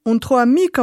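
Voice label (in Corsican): "ùn trova micca", nord et sud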